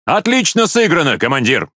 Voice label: Speech Quick Commands Good Game Ship, RU; World Of Warships

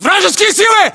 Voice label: threat infantry generic, english

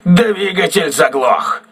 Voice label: engine damaged, crew ships russian

